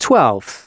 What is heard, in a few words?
announcements male